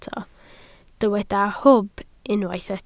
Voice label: "hwb", Welsh

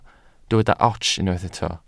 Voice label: "ots", Welsh

